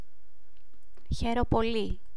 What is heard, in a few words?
"chero poli", greek